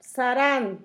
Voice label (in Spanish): criba, Zapoteco; Objetos; San Pedro Mártir; INALI